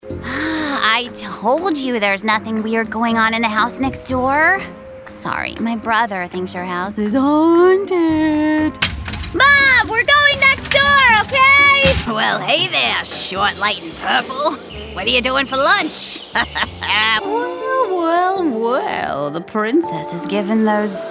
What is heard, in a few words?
Animation